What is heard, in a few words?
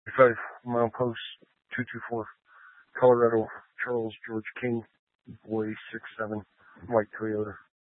Troop 2A Dispatch, scanner audio recording; Troop 2A Dispatch (Chaffee, Custer, Fremont, Lake, Park)